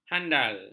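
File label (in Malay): "handal", Malay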